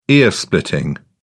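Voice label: "ear splitting", British English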